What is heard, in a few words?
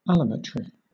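"allometry", British English; pronunciations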